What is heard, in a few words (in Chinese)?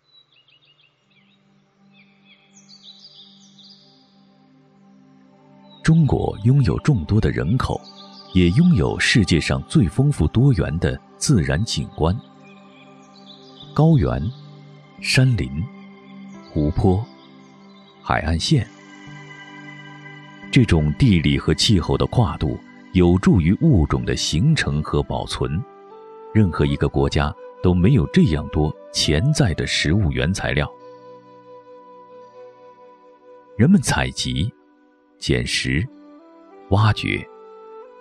记录片; 舌尖上的中国自然的馈赠